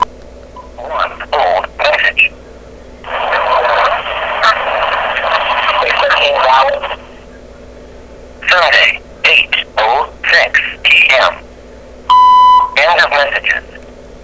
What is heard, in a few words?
Phone Message; EVP; This is an EVP which appeared on my answering machine, like all the others, it was from an unknown name, unknown number